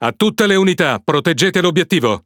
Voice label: It Speech Quick Commands Ally Ship; World Of Warships

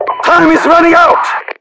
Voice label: times up, RU; mpvoice

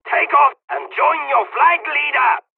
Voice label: takeoff, dialogs turkish; War Thunder

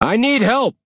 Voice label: Speech Quick Commands Need Support, EN; World Of Warships

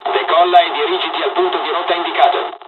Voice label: mission fly, dialogs italian

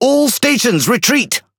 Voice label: Pirate Speech Quick Commands Back; World Of Warships

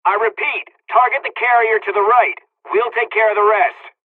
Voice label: dialog, dialogs serbian; War Thunder; midway

